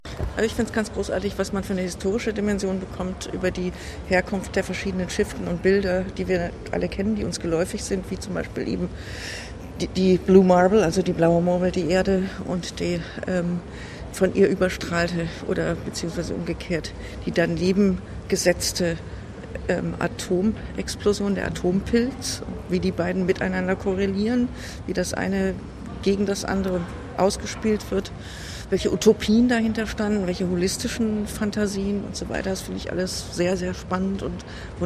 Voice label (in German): voxpop ausstellung